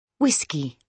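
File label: "whisky", British English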